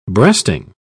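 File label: "breasting", British English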